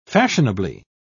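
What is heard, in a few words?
"fashionably", British English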